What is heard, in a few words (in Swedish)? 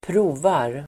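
"provar", Swedish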